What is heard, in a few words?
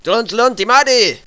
viet sub machine gun hurry; valve; gook